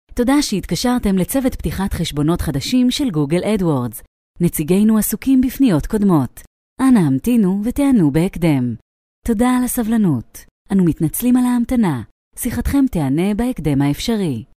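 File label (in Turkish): İbranice Kadın Ses; seslendirme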